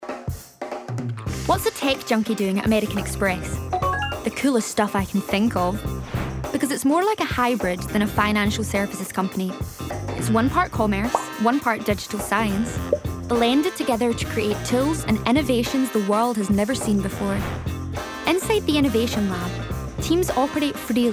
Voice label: Corporate, en-GB